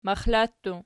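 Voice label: "maxla" plural, Archi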